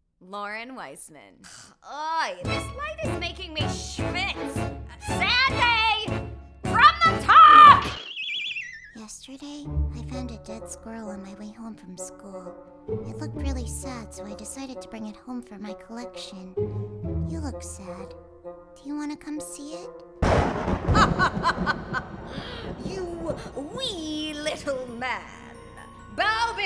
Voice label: animation